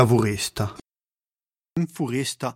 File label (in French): "furesta", Gravona (sud)